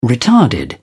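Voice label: "retarded", English, British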